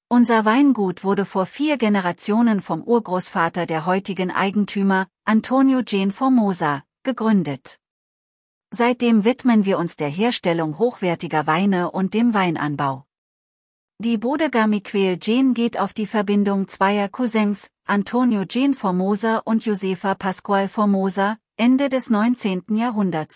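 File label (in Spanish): historia, German; historiabodega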